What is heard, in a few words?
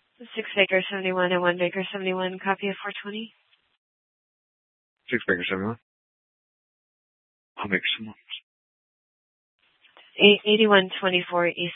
Superstition Dispatch, Mesa PD